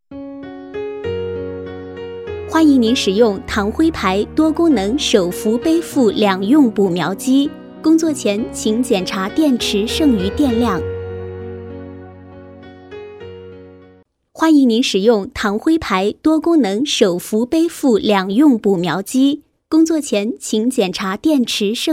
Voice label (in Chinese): 女 语音IC导语 开机提示